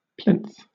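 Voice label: "plinth", British English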